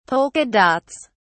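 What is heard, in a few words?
"polka dots", British English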